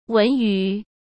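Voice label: "文娱", Mandarin Chinese; hsk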